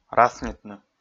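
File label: "раснитны", Komi, male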